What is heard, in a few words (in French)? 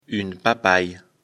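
"papaye", French; afrikalan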